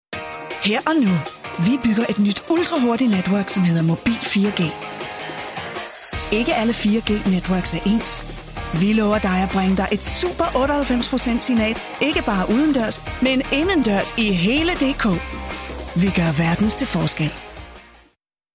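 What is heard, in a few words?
Danish commercial; GoLocalise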